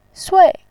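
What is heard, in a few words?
"sui", Mandarin Chinese, fourth tone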